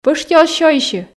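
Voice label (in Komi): пӧшти оз сёйсьы, Komi, female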